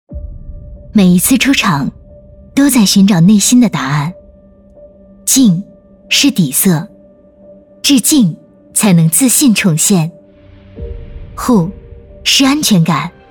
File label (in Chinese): 女 广告 质感 洗衣机; 松下洗衣机